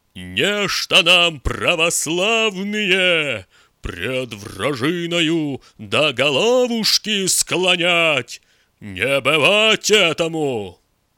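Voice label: bogatyr voice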